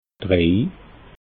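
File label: "drei", Alsatian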